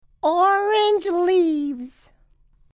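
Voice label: "orange leaves", English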